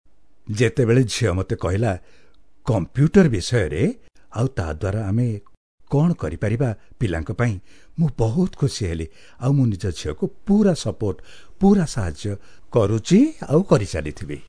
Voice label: Oriya Male